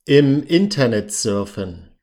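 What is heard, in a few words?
im internet surfen, German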